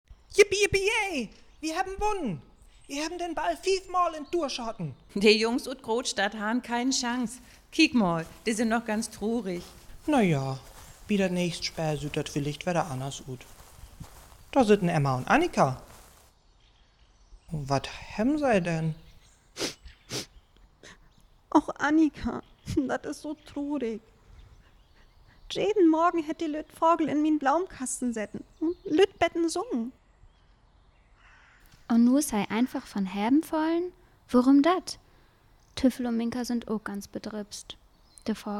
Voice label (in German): Dialog, niederdeutsch